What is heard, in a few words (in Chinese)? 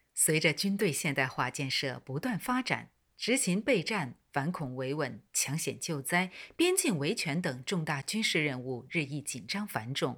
国语沉稳大气